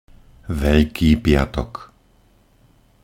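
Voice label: "Veľký piatok", Slovak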